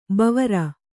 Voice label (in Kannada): "ಭವಾರಿ", Kannada